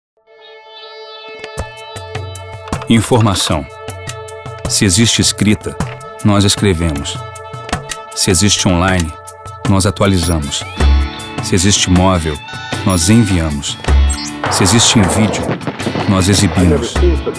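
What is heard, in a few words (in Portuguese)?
Dublagem, Voz Caricata, Português - Brasileiro, Masculino; Dublagem para o filme UM POBRETÃO NA CASA BRANCA, no original o ator CHRIS ROCK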